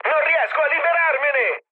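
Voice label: Italian aircraft damaged mood med, speech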